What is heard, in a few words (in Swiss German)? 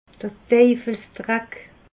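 "deifelsdreck", Alsatian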